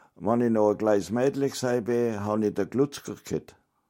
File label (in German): hoerbeispiel schwaebisch; jungingen; sprachliche vielfalt